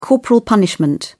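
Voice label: "corporal punishment", British English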